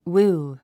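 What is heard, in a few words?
"woo", English word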